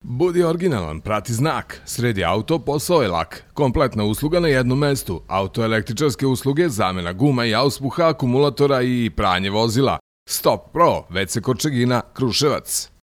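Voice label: Serbian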